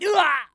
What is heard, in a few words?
Male